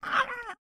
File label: hurt; dark mage